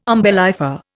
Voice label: "umbellifer", Indian